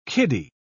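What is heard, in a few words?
"kiddy", British English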